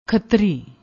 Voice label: "khatri", Punjabi